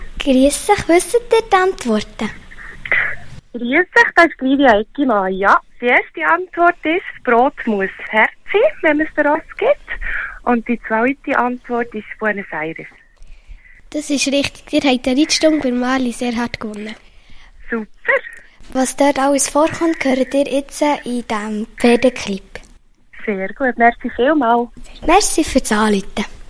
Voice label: Telefongewinnerin Anm. Werbeclip; Pferdehof Mungnau 4

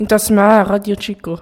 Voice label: arabisch

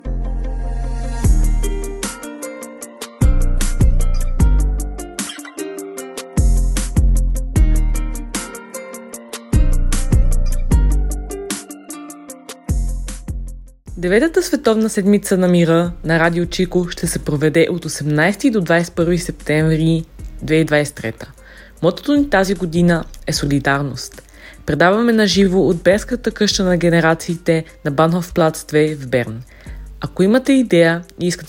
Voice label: Jingle Bulgarisch

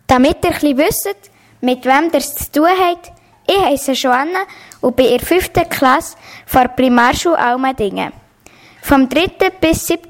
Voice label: Ankündigungsjingle

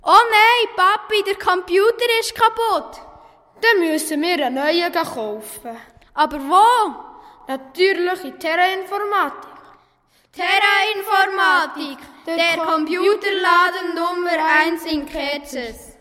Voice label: Werbung Informatik; Terra Informatik Kerzers

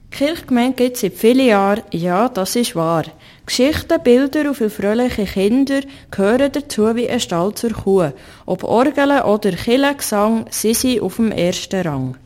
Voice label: Werbung Jingle; Kirchgemeinde Unterseen Jingle 1

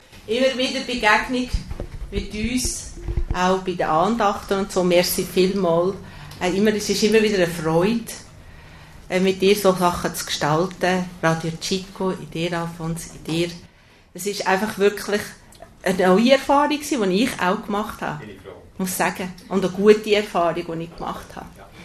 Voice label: ansprache frau heimleiterin

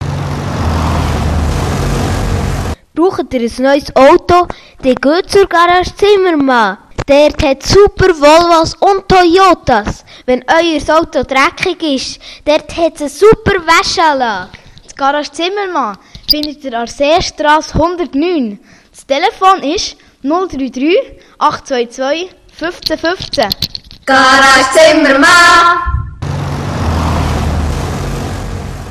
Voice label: Werbung; Garage Zimmermann, Unterseen

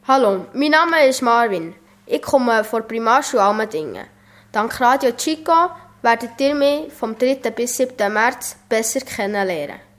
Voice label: Ankündigungsjingle

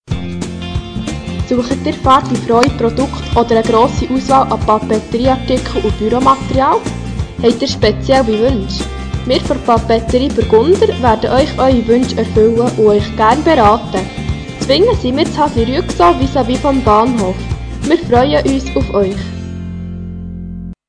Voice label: Werbespot; Papeterie Burgunder

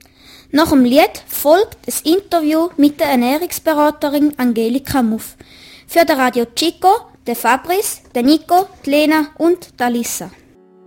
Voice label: Ansage Ernaehrungsberaterin; Sirnach

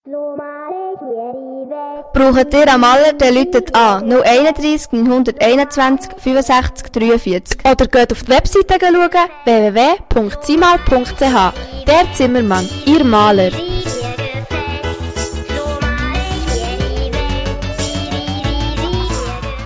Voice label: Jingle Malerei; Zimmermann; Bolligen

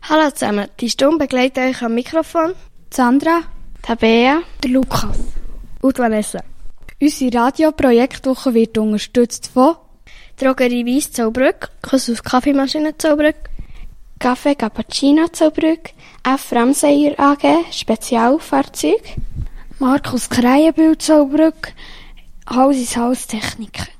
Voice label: Begrüssung Ansage Sponsoren; Mungnau 5

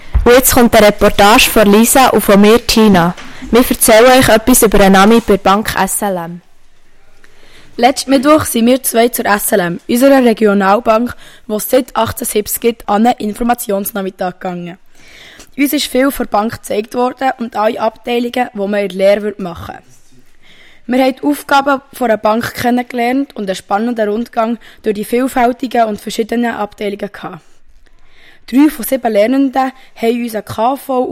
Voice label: Werbespot; SLM; Muensingen

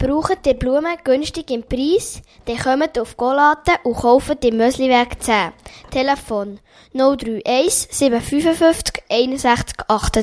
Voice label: Werbung; Blume Tüscher Golaten; Blumen Tüscher

